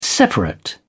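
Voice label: "separate", British English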